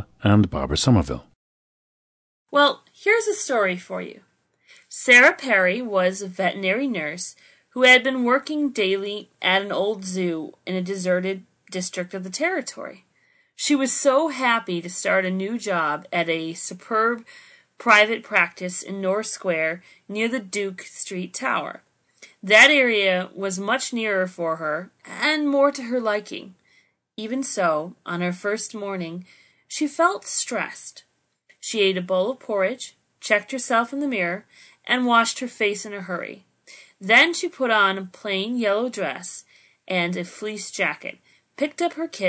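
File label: new york, International Dialects of English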